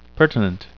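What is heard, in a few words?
"pertinent", English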